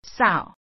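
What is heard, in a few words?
"sàu", Hokkien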